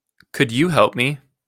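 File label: pronunciation "could you help me", English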